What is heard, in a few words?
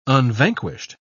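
"unvanquished", English, British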